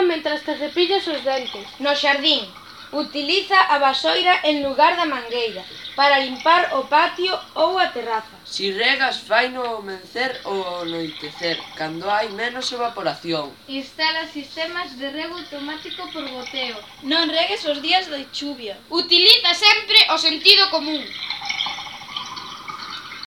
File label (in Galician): anuncio auga; aforrar auga; C.E.I.P. de Lourido